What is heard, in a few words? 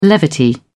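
"levity", British English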